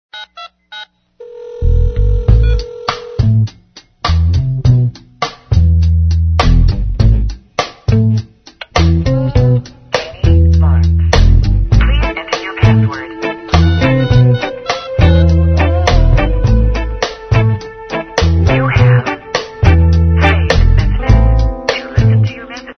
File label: Phone Message